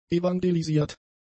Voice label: "evangelisiert", German; adjectives